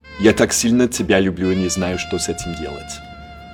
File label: russian